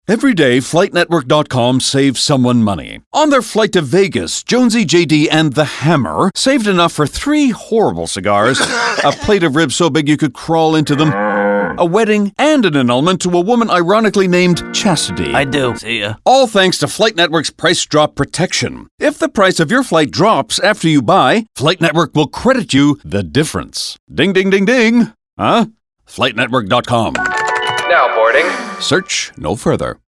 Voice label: radio; price drop protection; flight network; vegas